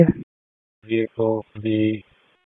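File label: Raleigh Police Southeast District Dispatch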